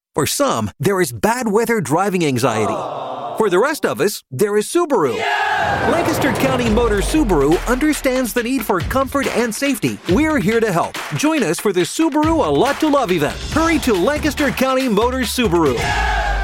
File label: english american automotive